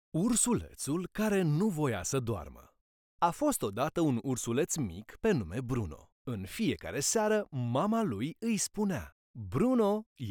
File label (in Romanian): poveste